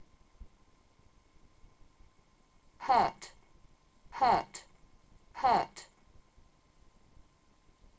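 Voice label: "hurt", English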